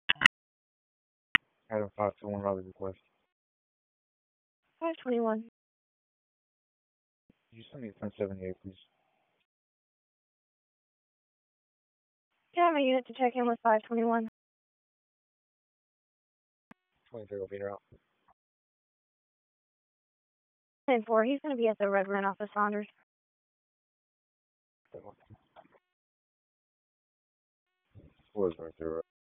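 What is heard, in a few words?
Raleigh Police Southeast District Dispatch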